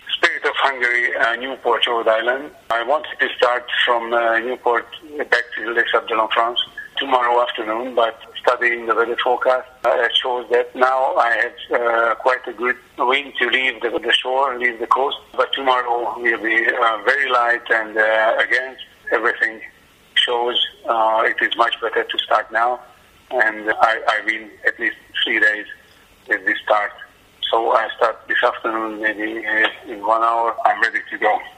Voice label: audio Eng; TRANSAT; VG qualific began